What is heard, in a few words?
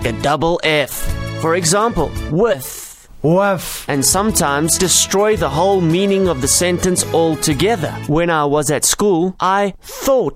Afrikaans Accent